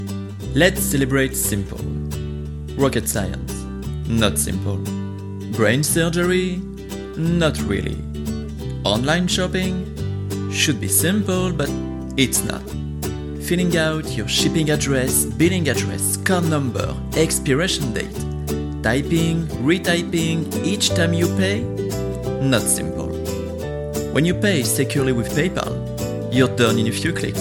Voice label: English